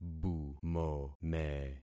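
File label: "bumome", concatenated syllables; Affixing experiment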